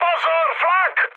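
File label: damaged aa, Czech, mood high; speech; War Thunder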